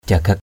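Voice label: "jagak", Cham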